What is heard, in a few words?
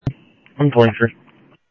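Sheriff West County, scanner audio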